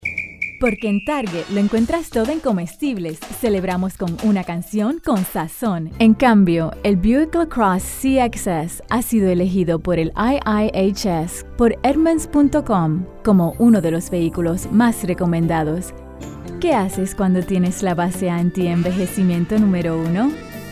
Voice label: Spanish

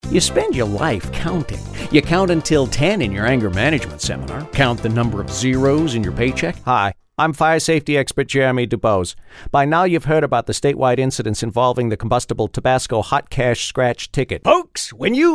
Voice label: commercial

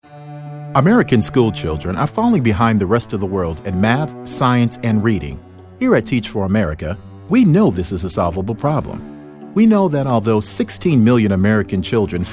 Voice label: Narration

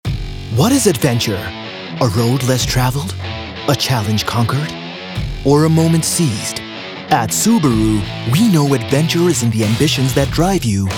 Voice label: COMERCIAL

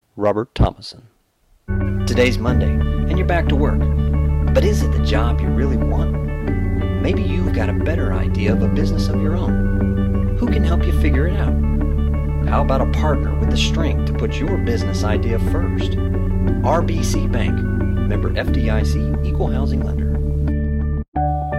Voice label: Narr

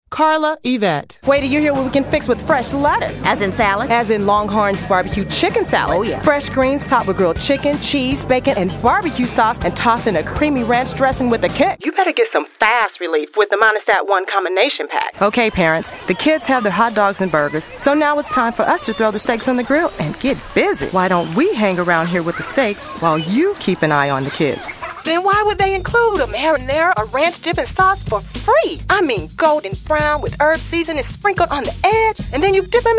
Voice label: Commercial VO

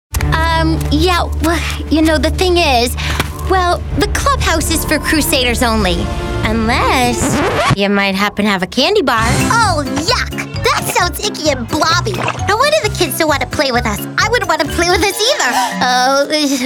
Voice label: Animation

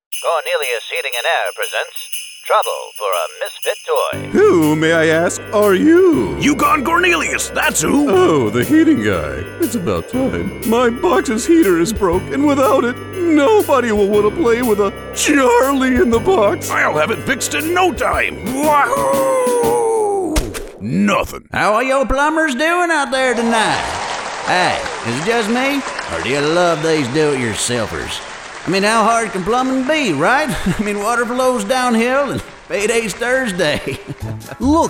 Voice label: character

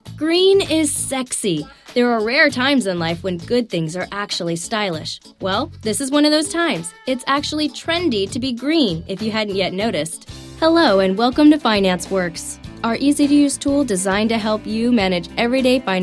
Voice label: Narration

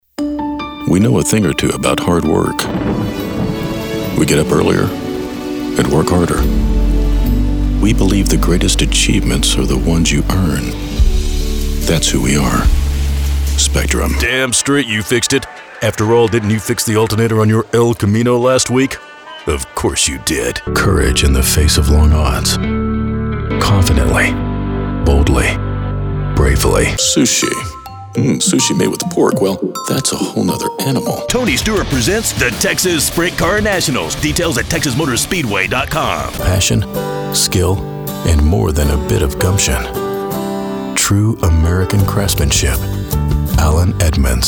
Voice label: Commercial

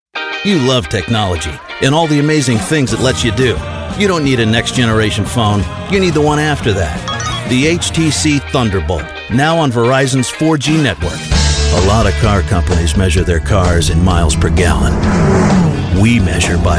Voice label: Commercials

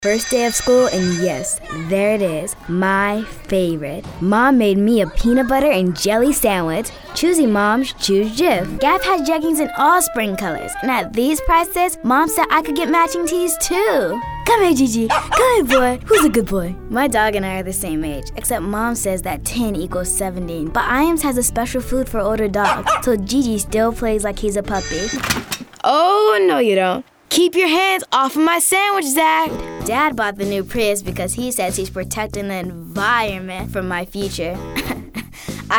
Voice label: Commercial

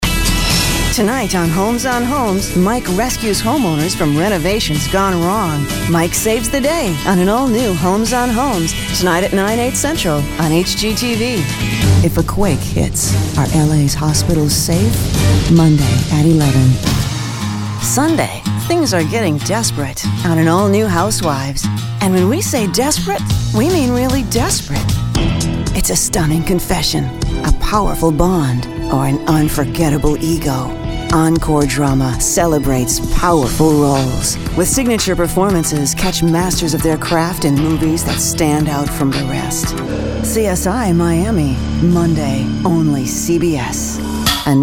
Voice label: Promos

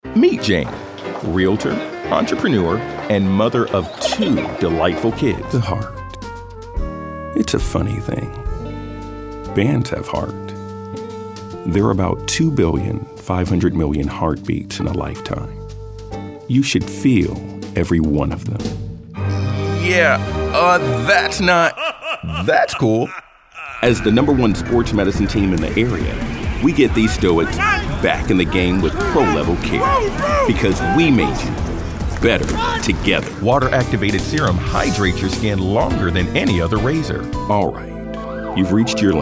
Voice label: Commercial

